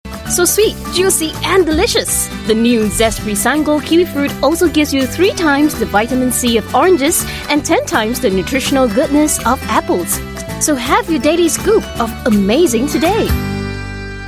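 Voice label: Asian English 15-sec TV commercial kiwifruit; Zespri SunGold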